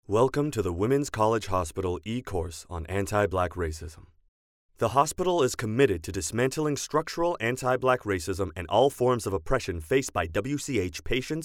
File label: eLearning